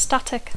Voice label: "static", English

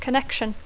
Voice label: "connection", English

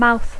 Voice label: "mouth", English; boca

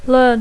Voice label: "learn", English

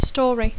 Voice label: "story", English; història; conte; relat

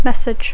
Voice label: "message", English

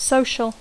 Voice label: "social", English; adjectiu; reunió social

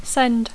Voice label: "send", English

